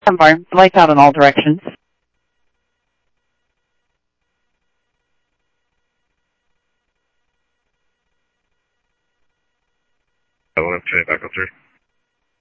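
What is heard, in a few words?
Mesa Police Department, scanner audio; Mesa Simulcast; MESA PD 1 Fiesta MesaPoliceDep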